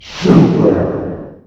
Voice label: VOICE SUPER